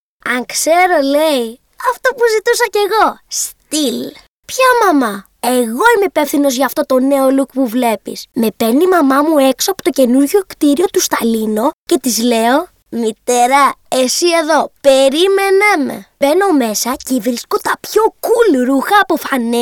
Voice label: kid agori